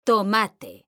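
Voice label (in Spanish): "tomate", Spanish, female; mekanta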